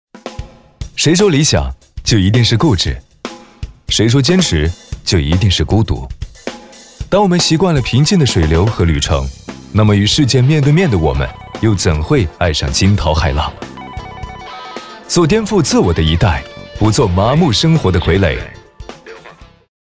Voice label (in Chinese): 高端男洋气动感; 绿地集团